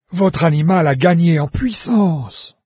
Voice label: pet level up, NARRATOR, fr; Fate